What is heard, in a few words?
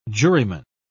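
"juryman", British English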